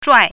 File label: "zhuai4", pinyin